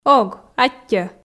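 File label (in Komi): "Ог, аттьоӧ", female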